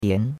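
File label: "dian2", Chinese; Cours-de-Chinois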